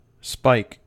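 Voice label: "spike", US English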